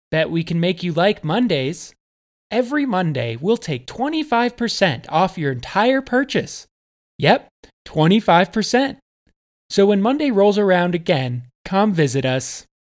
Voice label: Voice Promo